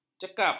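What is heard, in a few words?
"cekap", Malay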